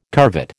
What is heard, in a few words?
"curvet", American